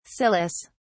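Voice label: "cilice", British English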